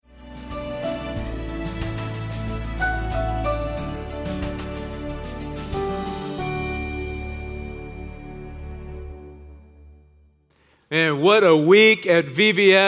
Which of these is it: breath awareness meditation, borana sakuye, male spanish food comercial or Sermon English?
Sermon English